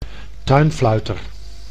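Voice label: "tuinfluiter", Dutch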